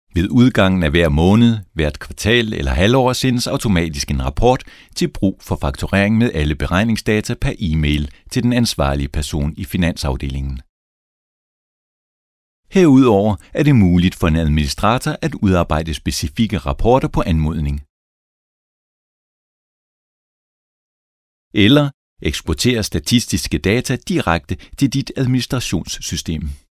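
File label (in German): dänisch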